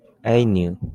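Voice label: "Ainu", Japanese, male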